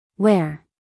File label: "ware", us female; 1000 hours